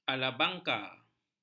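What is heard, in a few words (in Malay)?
"alabangka", Malay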